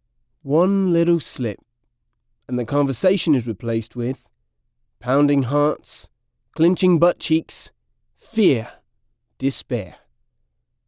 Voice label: British Accent; Moto X